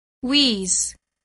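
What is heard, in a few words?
"wheeze", English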